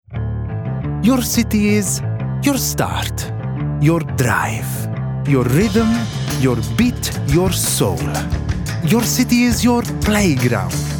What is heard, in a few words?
Commercial, Bright, Light, Warm, Trustworthy, Italian